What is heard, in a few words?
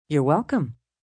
Youre Welcome F, female; Etiquette